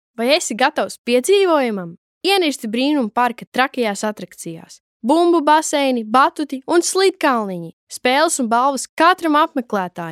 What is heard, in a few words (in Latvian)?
Reklama